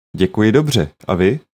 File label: how are you, czech